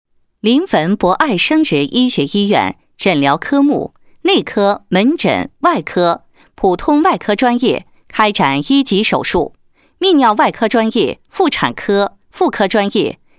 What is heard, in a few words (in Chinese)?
女, 医院广告 医院广告配音; 临汾博爱生殖医学医院